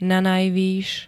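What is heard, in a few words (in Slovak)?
"nanajvys", Slovak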